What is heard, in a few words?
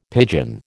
"pidgin", American